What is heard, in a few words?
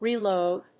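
"reload", English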